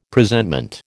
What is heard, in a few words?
"Presentment", American